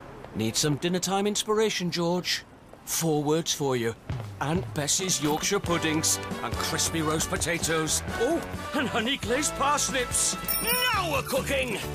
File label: Commercial